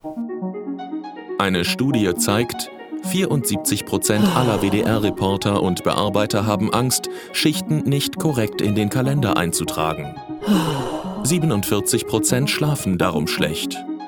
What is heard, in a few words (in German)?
reportage; pgaktuelles